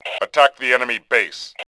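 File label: attack base, Male; Tribes2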